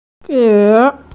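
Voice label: "zhi3", Mandarin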